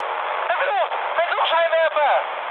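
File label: German searchlite mood high, speech; War Thunder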